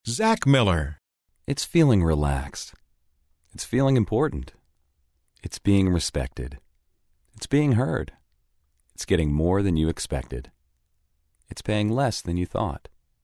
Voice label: smooth; Soothing